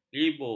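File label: "libur", Malay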